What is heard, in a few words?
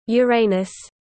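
"uranus", British English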